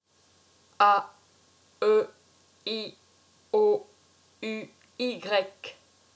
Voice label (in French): Voyelles, french